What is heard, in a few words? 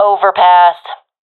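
location overpass, professional female; vo; Counter Strike Model Pack; CS:GO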